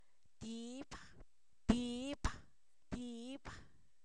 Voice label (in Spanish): cicatriz, Zapoteco, san miguel albarradas; enfermedades